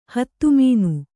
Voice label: "ಹತಮನ", kannada

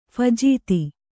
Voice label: "ಫಜೀತಿ", Kannada

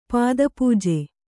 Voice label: "ಪಾದಪೂಜೆ", Kannada

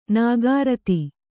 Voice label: "ನಿಕೃತ", kannada

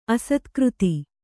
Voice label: "ಅಸ್ತಗತಿ", Kannada

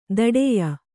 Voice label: "ಧಡಿಯ", Kannada

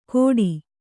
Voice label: "ಕೋಡಿ", Kannada

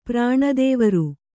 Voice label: "ಪ್ರಾಣದೇವರು", Kannada

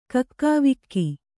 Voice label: "ಕಕ್ಕಾವಿಕ್ಕಿ", Kannada

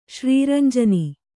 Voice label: "ಶ್ರೀರಂಜನಿ", kannada

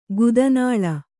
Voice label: "ಗುದನಾಳ", Kannada

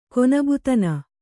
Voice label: "ಕೊನಬುತನ", kannada

